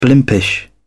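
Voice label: "blimpish", British English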